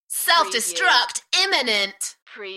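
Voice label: UK female, Enthusiastic, DJ vocals; beanstalk-audio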